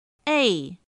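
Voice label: "èi", Chinese; pinyin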